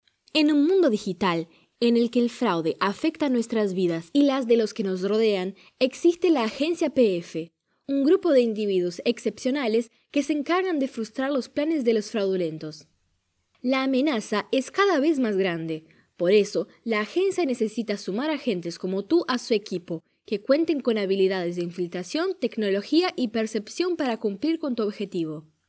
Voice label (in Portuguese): locutora nativa espanhol